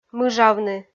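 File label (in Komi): "мыжавны", Komi, female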